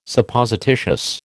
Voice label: "Supposititious", American